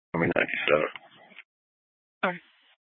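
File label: police dispatch, Scottsdale PD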